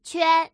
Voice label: single, female